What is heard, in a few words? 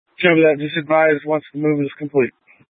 Light Rail Emergency, scanner